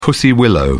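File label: "pussy willow", British English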